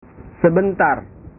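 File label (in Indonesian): "sebentar", Indonesian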